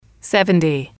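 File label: "seventy", English, female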